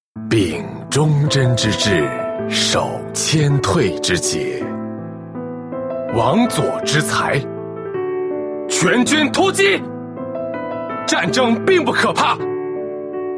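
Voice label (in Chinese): male, qingnian (young man)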